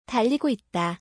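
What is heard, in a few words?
is running, Korean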